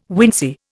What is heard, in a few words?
"wincey", Indian